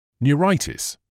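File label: "neuritis", British English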